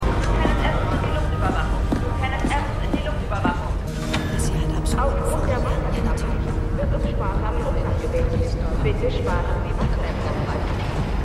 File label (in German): Durchsage; Foundation